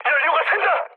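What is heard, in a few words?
Korean tank damaged mood med, speech; War Thunder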